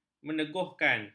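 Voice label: "meneguhkan", Malay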